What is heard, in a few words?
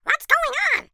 cartoon character high pitched voice says "whats going on"